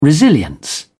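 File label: "resilience", British English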